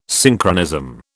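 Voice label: "Synchronism", British English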